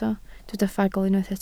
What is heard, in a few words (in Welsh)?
"ffagl", Welsh